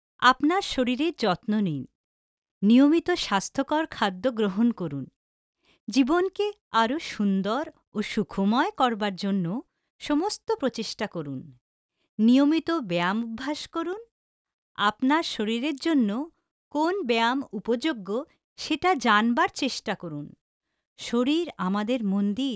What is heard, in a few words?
bengali, Bengali F